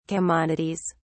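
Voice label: "commodities", English, British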